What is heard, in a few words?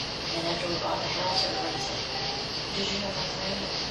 Child's Voice; Allen House